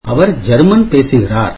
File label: tamil he speaks German